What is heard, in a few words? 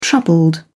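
"troubled", British English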